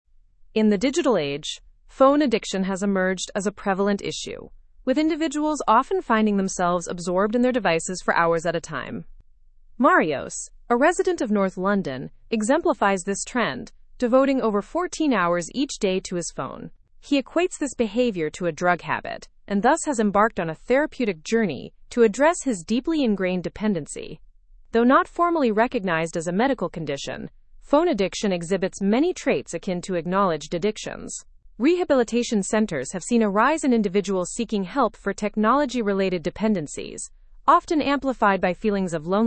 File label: english news advanced; escalating concerns over phone addiction and rehabilitation